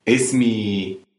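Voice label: my name is, Egyptian Arabic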